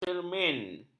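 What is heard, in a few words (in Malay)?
"cermin", Malay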